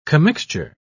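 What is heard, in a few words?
"commixture", English, British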